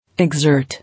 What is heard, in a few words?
"Exert", English